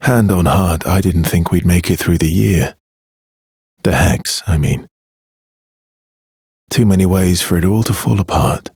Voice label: Ambient Barks, en; Warframe; Mall Romance; Arthur

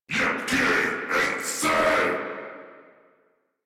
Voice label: Attack, en; Warframe; Corpus Amalgam Pilot

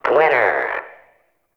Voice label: Arcade Voices

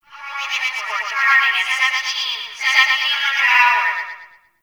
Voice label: Campsite Announcement; Warframe; Tau

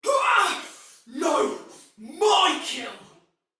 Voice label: Damage Low Chance Soldier Female; Battle; Barks; Dialog; Sinecure Soldier VIP Female A

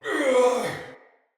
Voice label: Death Soldier Male; Battle; Barks; Dialog; Sinecure Soldier Male A

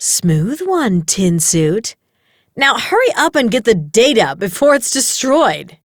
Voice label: Spy Alarm Trigger, Dialog; Warframe; Spy Quest; Maroo

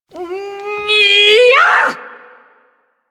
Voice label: Ability Lift, Barks; Warframe; Corpus Lich; Persona A

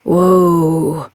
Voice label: Horse Stop Walk Adult; Duviri

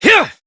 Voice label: Horse Sprint Adult; Duviri

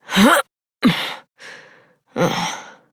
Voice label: Horse Dismount Adult, Dialog; Duviri

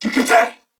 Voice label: Surprised, Corpus Venus Male; Dialog; Barks; Battle; Warframe; CorpusVenusMaleA

